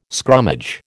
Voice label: "scrummage", American

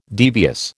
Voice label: "devious", American

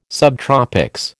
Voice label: "subtropics", American

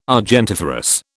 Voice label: "argentiferous", British

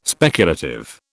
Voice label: "speculative", British

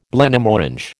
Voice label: "Blenheim Orange", American

